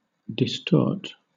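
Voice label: "distort", British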